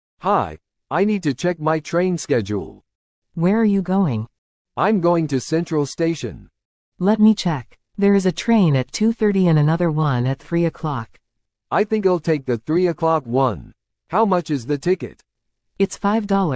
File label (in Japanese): 会話 ノーマル